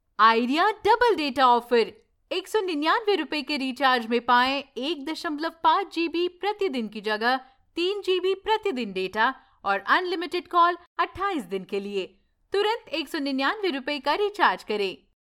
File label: Hindi